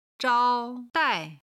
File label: "zhao1dai4", chinese